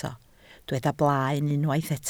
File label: "blaen", Welsh